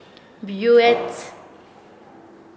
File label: Nasa Yuwe; billete; paez